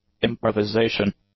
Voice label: "improvisation", English; nouns

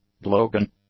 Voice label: "blowgun", English; nouns

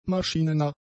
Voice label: "maschinennah", German; adjectives

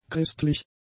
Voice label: "christlich", German; adjectives

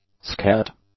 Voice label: "skat", english; nouns

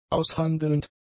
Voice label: "aushandelnd", German; adjectives

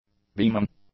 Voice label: "beaming", English; nouns

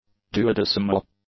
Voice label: "duodecimal", English

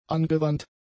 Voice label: "angewandt", German; adjectives

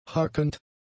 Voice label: "hackend", German; adjectives

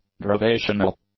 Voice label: "derivational", english; adjectives

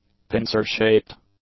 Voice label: "pincer-shaped", english; adjectives

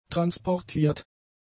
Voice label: "transportiert", German; adjectives

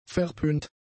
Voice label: "verpönt", German; adjectives

